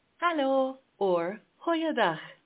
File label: hello, Afrikaans